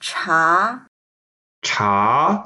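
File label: "cha2", Mandarin Chinese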